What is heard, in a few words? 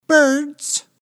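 "birds", English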